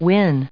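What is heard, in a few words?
"win", US English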